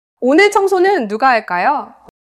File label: neutral, monologue